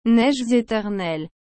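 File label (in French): "neiges éternelles", French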